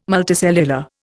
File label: "multicellular", Indian